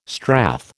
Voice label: "strath", American